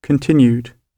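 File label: "continued", British English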